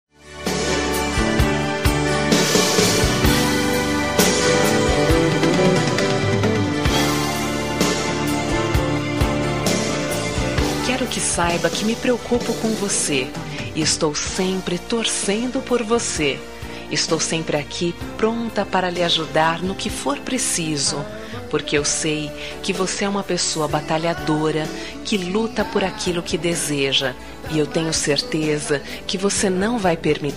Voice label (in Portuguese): Amizade Com Otimismo pra Amiga F